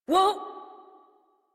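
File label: horse stop adult, English; Warframe; Duviri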